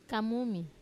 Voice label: "kamumi", mosquito, wamesa